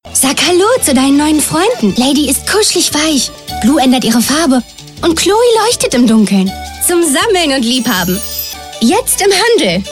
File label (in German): Werbung Kinder; LOFT VOICES